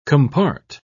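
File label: "compart", British English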